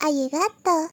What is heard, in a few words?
ayigato